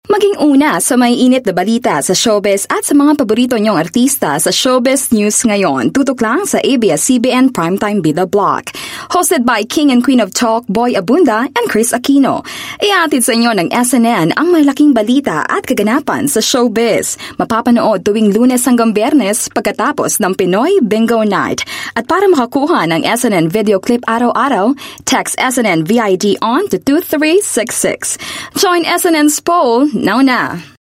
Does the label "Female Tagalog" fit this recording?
Yes